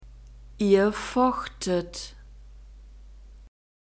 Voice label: "ihr fochtet", German; verbs past tense irregular

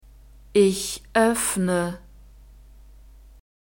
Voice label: "ich oeffne", German; verbs present tense regular

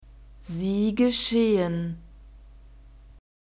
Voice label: "sie geschehen", German; verbs present tense irregular

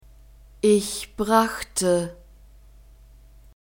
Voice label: "ich brachte", German; verbs past tense irregular

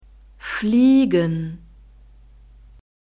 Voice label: "fliegen", German; verbs past tense irregular

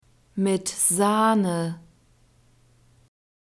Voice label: "mit sahne", german; soft drinks new words

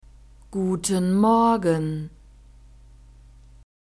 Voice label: "guten morgen", German

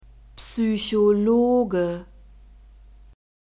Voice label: "Psychologe", German; masculine

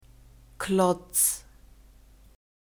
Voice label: "klotz", german; alphabet

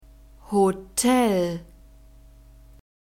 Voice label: "hotel", German; neuter

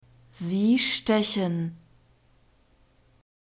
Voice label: "sie stechen", German; verbs present tense irregular

